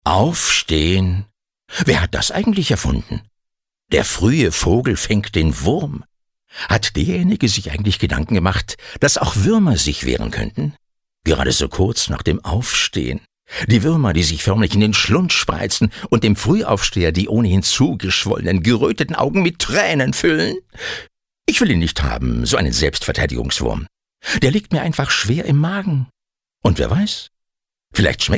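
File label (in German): acting, Dynamisch; Der Fruehe Vogel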